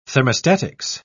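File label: "thermostatics", British English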